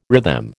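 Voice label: "rhythm", American English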